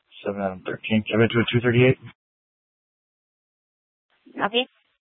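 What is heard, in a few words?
police dispatch, scanner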